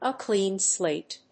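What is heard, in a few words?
"a clean slate", English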